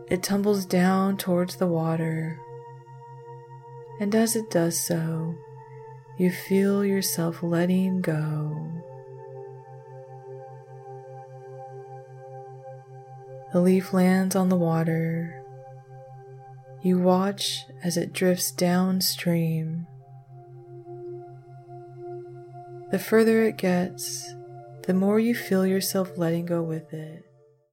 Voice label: fall meditation